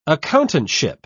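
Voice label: "accountantship", British English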